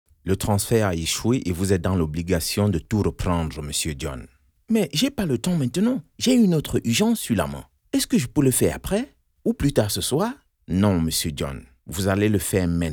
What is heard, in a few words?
FR African